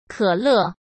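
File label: "可乐", Mandarin Chinese; hsk; chinese-flashcards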